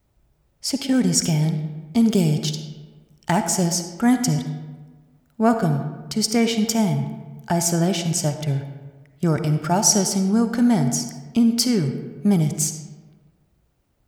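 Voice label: robot, voiceover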